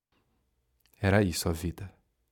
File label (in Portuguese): NARRACAO; meu tio lobisomem